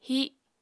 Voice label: "híh", apatani